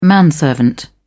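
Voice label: "manservant", English, British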